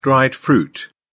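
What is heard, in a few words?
"dried fruit", British English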